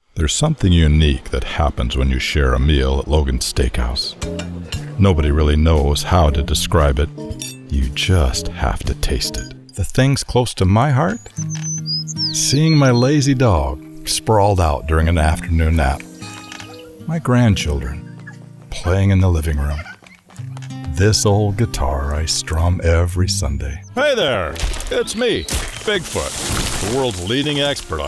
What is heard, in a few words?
Commercial